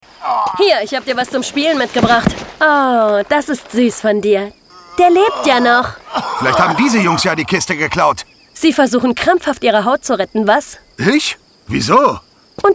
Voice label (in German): Hoerspiel Doppelrolle; Centralia; Label PUSH MY BELLY; Babe und Ruth